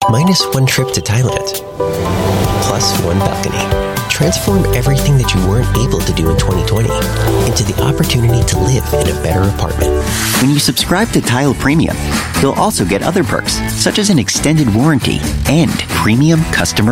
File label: US English Commercial voice-over Reel